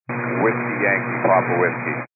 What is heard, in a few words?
122 725MHz, scanner radio